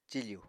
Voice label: "cifù", apatani